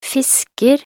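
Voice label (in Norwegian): "fisker", Norwegian; talkbook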